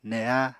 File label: "neha", Apatani